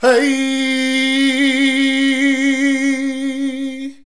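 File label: voice, Foreigns; Sound & Vision; Gigapack II CD 2 (Roland)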